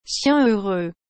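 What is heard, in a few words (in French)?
"chien heureux", French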